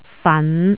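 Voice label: "fan5", Cantonese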